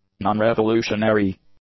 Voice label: "nonrevolutionary", english; adjectives